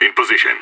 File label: in position, radio; SAS